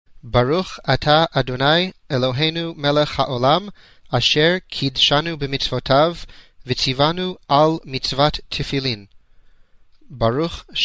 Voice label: sefardit